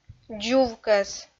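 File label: "дзувкӧс", Komi, female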